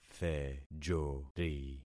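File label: "thejori", concatenated syllables; Affixing experiment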